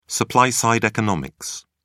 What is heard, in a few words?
"supply side economics", en uk